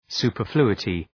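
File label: "superfluity", English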